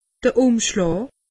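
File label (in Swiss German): "umschlaa", Alsatian; medecine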